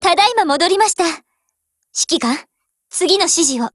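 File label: OPERATION OVER, Japanese; GF Alarm; AR15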